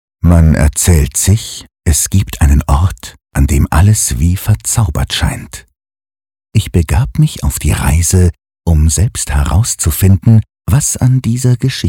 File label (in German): Schauspiel Sanft